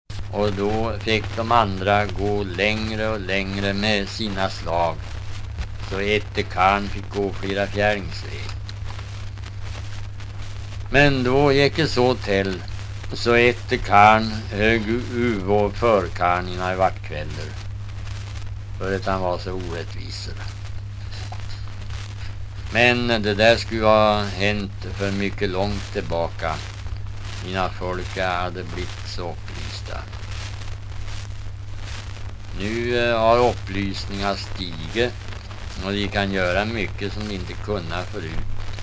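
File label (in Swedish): Riala Uppland, dialekter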